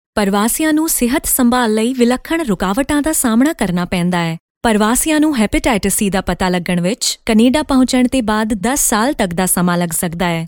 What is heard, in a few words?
Punjabi sample Hepatitis C